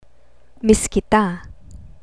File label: miss kita, Tagalog